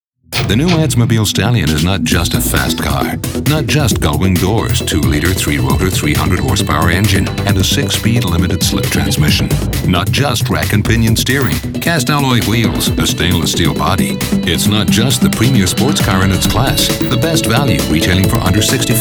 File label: Car Ad voice-over